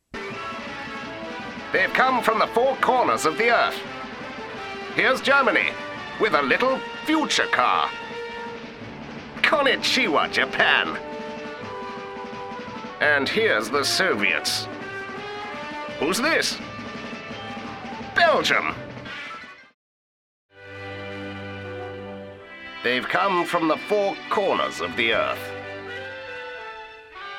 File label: 1950s newsreel voice; bbc newsreel voice